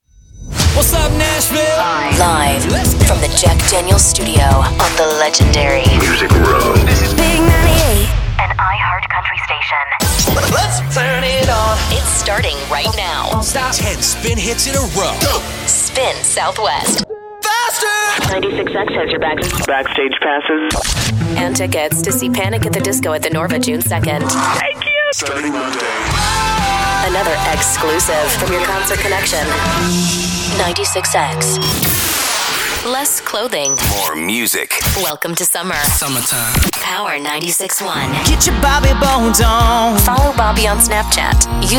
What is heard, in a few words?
radio women; radio imaging